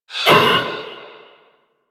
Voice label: Pain; Warframe; Generic Missions; Stalker